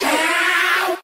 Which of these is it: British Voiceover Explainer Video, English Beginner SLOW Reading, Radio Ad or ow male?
ow male